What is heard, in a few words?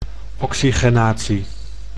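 "oxygenatie", Dutch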